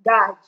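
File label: "gaj", Nigerian Pidgin, female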